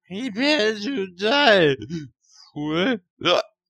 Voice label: Fool drunk; Prepare to die, Fool!